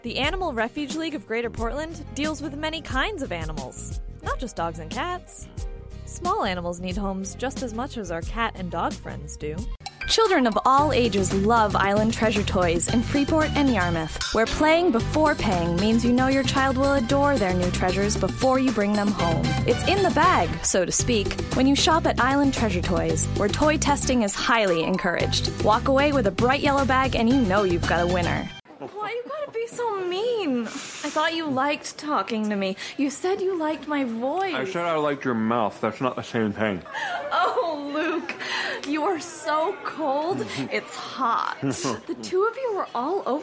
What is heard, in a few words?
Voiceover